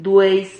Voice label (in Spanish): "dueis", Silabas